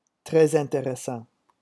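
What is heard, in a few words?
"tres interessant", French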